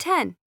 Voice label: English Number